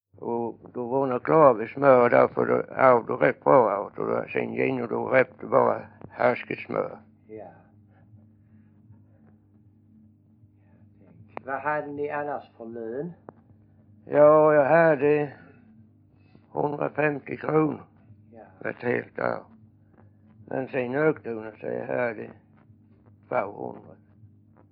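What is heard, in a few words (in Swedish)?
Vånga Skåne, Svenska sagor, dialekter; Folkminnen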